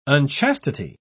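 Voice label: "unchastity", British English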